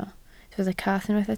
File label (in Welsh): "cath", Welsh